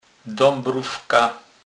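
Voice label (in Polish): "dabrowka", Kurpie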